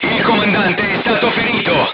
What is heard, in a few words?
voice message commander wounded, crew tanks italian